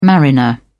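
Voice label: "mariner", English, British English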